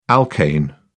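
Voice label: "alkane", British English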